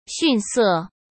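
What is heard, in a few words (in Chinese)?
"逊色", Mandarin Chinese; chinese-flashcards; hsk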